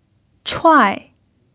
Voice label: "chuai4", chinese

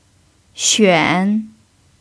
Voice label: "xuan3", chinese

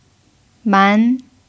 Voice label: "man2", chinese

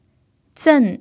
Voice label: "zen4", chinese

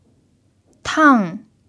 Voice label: "tang4", chinese

